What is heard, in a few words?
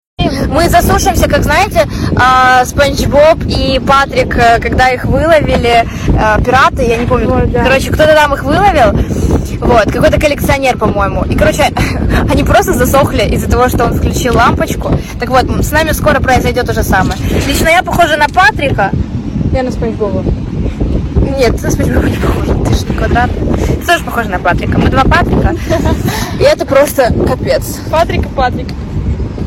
video voice call; fake call; lady diana